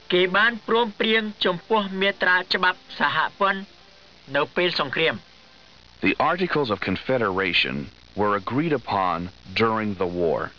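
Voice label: cambodian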